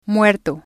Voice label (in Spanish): "muerto", spanish